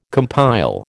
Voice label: "compile", American